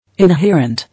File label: "Inherent", English